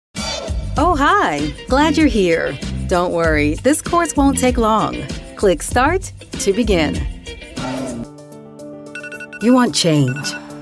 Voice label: english american e-learning

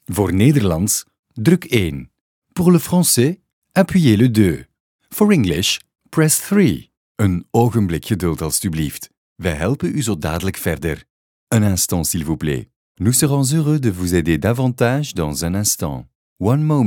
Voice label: flemish ivr

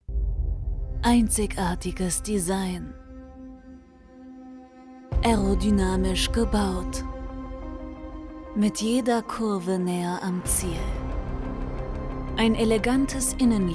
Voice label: german movie trailers

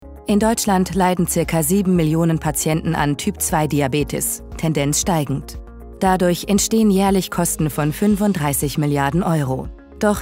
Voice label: german medical narration, german voice actors

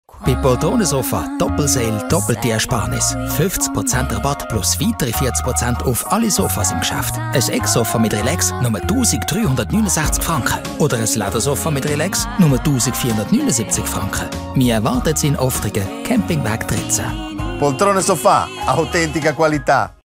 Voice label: german switzerland promos

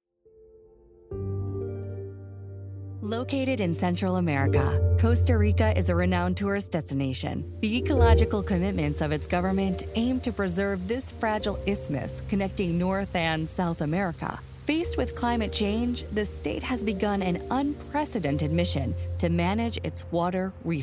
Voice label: english american documentaries

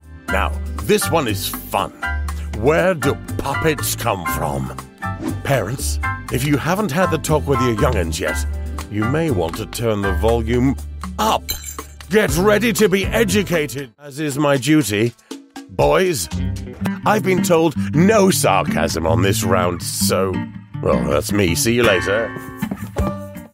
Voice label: English British animation

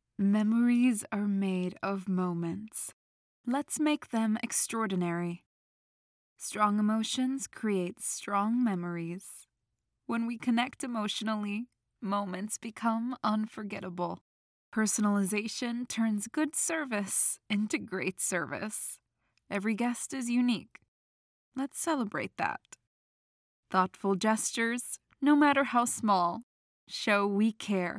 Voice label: english american; professional bilingual (English and Spanish) voice actor

